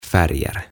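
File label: "Färger" Swedish; Maalit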